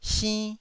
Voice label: "sinn1", taiwanese Syllables